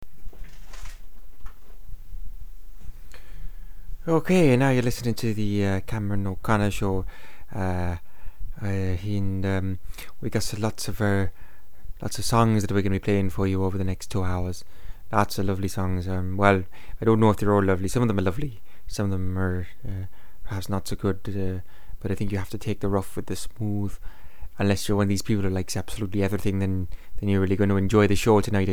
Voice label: radio